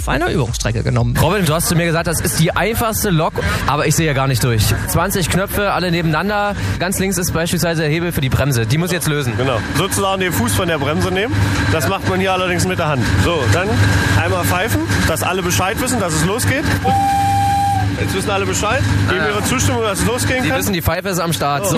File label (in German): Radio Reporter; Radio Brocken; Sachsen-Anhalt